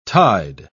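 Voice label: "tied", British English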